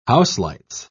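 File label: "houselights", British English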